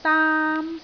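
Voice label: "daam1", Cantonese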